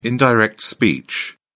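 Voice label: "indirect speech", British English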